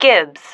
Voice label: "gibs", female

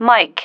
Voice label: "mike", female

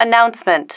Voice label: "announcement", female

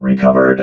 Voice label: "recovered", robot

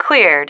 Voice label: "cleared", female

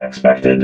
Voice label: "expected", robot vox

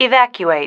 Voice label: "evacuate", female

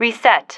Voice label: "reset", female

